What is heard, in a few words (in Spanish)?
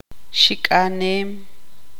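"volar", Tz'utujil, female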